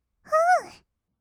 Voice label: ふー, kawaii voice; 音ゲー

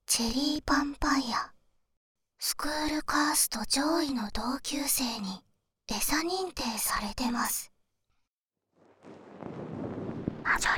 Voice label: タイトルコール; CHERRY・VAMPIRE

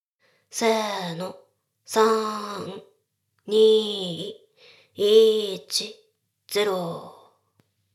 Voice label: ダウナー風俗 カウント

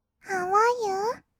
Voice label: ハウアーユー？, kawaii voice; 挨拶

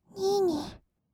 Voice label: "にぃに", kawaii voice; ASMR; 二人称

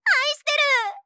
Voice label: 愛してる！, 相づち・反応系【ポジティブ】, ASMR

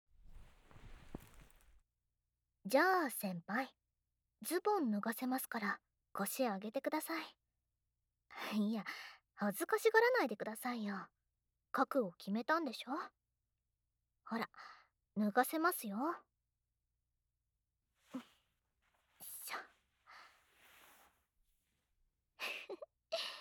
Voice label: 耳舐め手コキ, ASMR

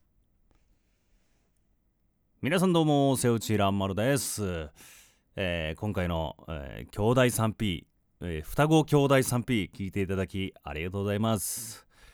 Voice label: フリートーク

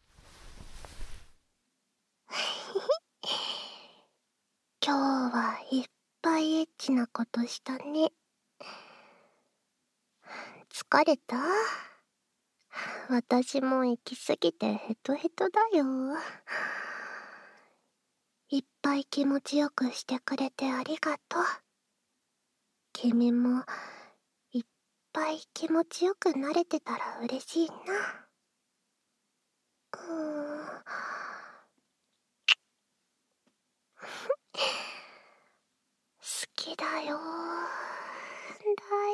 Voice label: ささやき添い寝; ASMR; SEあり

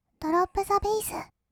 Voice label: "ドロップザベィス", kawaii voice; 音ゲー

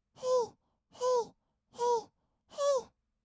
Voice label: 「へいへい」, kawaii voice, 合いの手; 1200 kawaii voice!!; ASMR

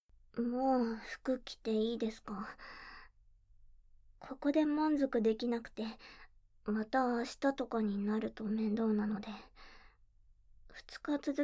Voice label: バイブ＆アナルパールでオホ声調教, ASMR

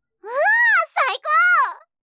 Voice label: んー最高！, 相づち・反応系【ポジティブ】, ASMR

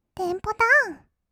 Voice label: テンポダウン, kawaii voice; 音ゲー

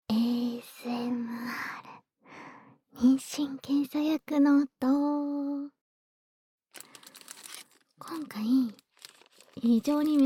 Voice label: ASMRフリートーク; 妊娠検査薬

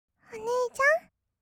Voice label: お姉ちゃん, kawaii voice; ASMR; 二人称; 1200 kawaii voice!!

